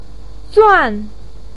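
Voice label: "zuan4", Mandarin Chinese, pinyin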